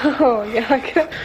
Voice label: "oh yuck", expletives, g-rated swears; Voice